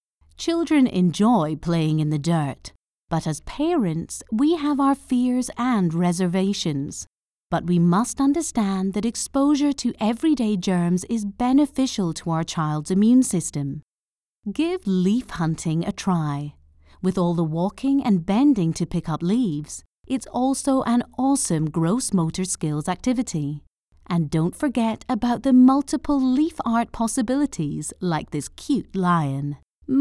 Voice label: Soft Scottish; KidzMatters